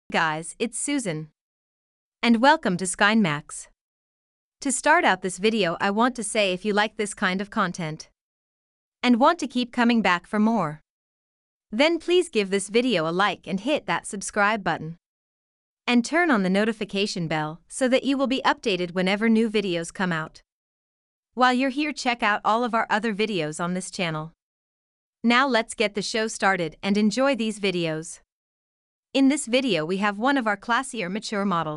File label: English (Asian), Female